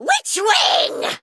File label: Female Harpy